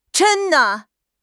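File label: "zhen1", Chinese; HSK; HSK2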